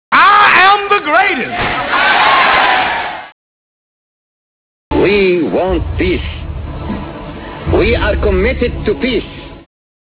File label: speeches, parkinsons disease